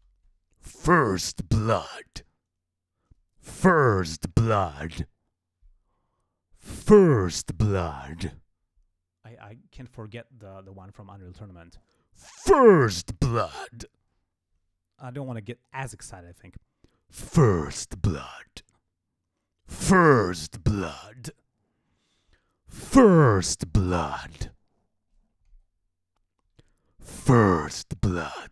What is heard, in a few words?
Announcer